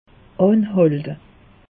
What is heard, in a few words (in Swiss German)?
"anhalte", alsace; meteo